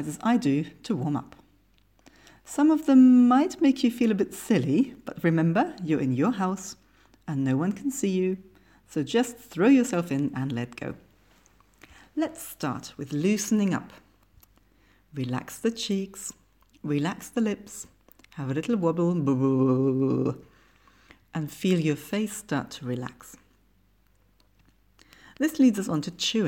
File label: Voice Training English